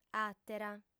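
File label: "àtera", Sardinian, female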